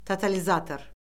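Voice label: "totalizator", Azerbaijani; Orfoqrafiya Lüğəti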